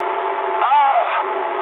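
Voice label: damaged pilot mood high, speech English; War Thunder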